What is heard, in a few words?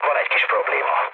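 Hungarian aircraft damaged mood low, speech; War Thunder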